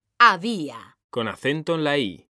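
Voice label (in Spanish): "había", Spanish, female; mekanta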